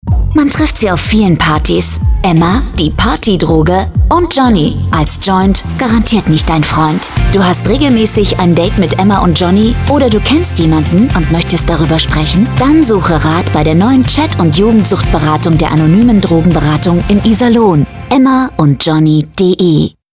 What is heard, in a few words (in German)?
funkspot; mk robs iserlohn